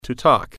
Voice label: "talk", English